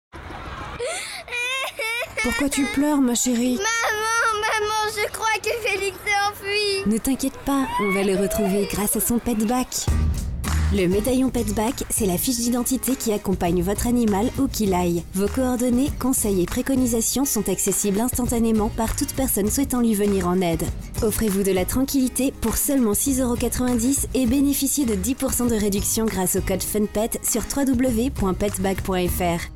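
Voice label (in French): voix off féminine; Petpack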